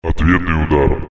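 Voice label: Backlash, Russian; WoW Wotlk; Necrosis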